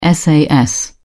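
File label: "sas", British English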